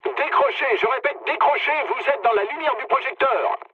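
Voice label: searchlite, mood low, French; War Thunder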